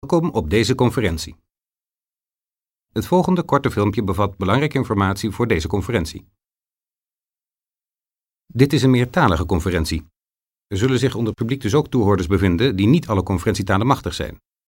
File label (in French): neerlandais